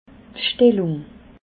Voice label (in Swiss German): "bstellung", alsace